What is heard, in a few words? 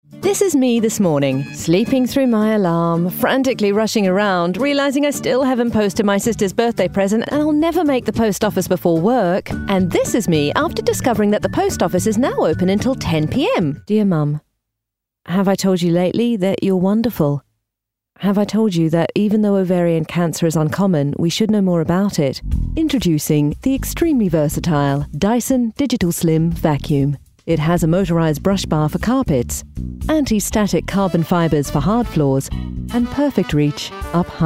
Commercial RP